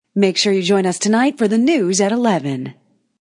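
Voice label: News Female Join Us Tonight, A female news anchor says; Talking; News Female Ad; Voice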